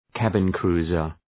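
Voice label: "cabin cruiser", English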